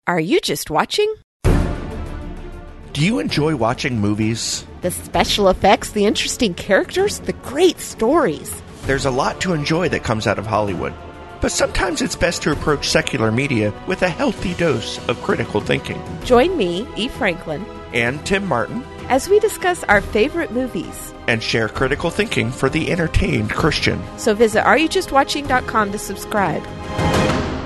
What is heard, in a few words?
promo